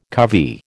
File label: "covey", American